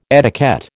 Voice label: "etiquette", American